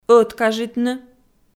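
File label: "ӧткажитны", Komi-Zyrian, female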